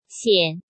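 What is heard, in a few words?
"Xiǎn", Chinese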